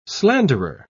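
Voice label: "slanderer", British English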